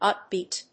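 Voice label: "upbeat", English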